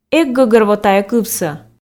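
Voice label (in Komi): "эг гӧгӧрво тайӧ кывсӧ", Komi, female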